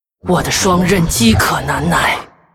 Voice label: female demon hunter morphed what, zh